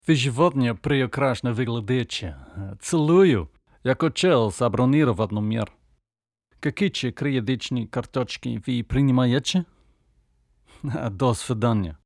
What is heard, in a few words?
Language Russian